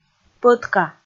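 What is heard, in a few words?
"пӧтка", Komi, female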